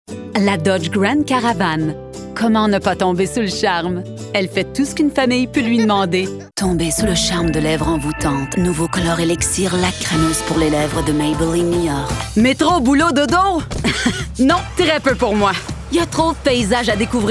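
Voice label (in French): Commercials French Canadian; Demo Reel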